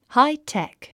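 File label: "high-tech", English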